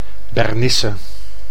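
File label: "Bernisse", Dutch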